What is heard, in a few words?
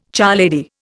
"charlady", Indian